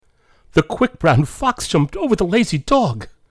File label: happy, emotions